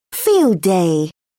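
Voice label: "field day", English, British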